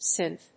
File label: synth